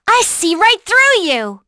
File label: Vox Skill, en; kingsraid